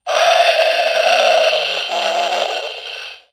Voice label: Cartoon Human Speak Through Voice Changer Robot Short; AdobeCC